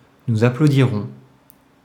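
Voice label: "nous applaudirons", French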